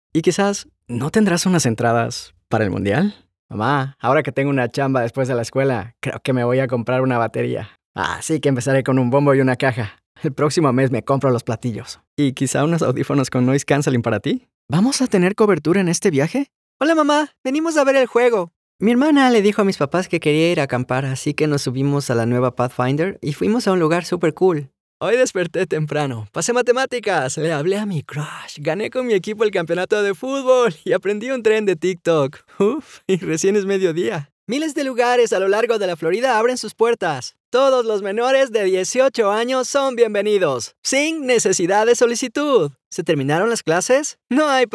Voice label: Teen